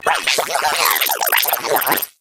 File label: egg excited